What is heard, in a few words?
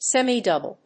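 "semidouble", English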